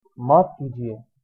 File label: sorry, hindi